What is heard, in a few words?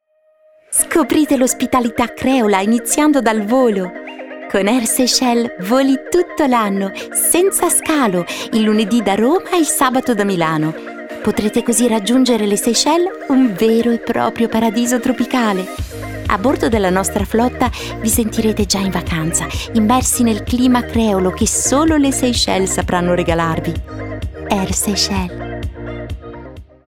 italian promos